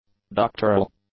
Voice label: "doctoral", English; adjectives

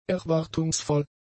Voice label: "erwartungsvoll", German; adjectives

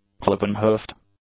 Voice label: "cloven-hoofed", English; adjectives

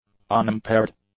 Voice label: "unimpaired", english; adjectives

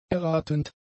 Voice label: "erratend", German; adjectives

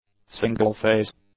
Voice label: "single-phase", English; adjectives

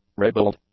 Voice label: "ribald", English; adjectives

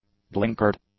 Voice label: "blinkered", English; adjectives

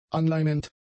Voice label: "anleimend", German; adjectives

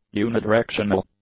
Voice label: "unidirectional", english; adjectives

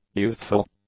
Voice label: "youthful", english; adjectives

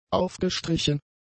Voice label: "aufgestrichen", German; adjectives

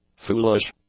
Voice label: "foolish", english; adjectives

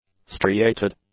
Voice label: "striated", English; adjectives

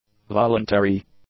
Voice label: "voluntary", English; adjectives

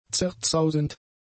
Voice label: "zerzausend", German; adjectives

